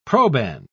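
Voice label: "proband", British English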